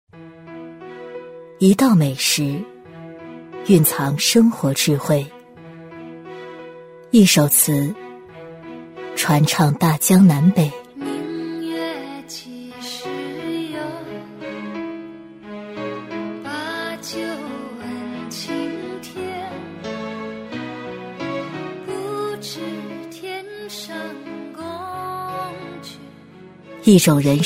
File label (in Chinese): 女, 纪录片, 低沉从容; 低沉走心; 苏东坡